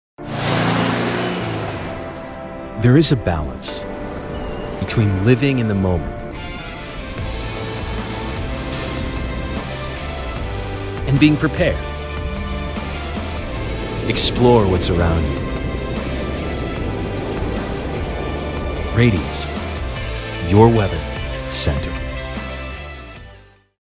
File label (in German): TV Werbespots Jugendfrei; NATIVE PROFISPRECHER